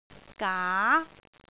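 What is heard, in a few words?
"gaa2", Cantonese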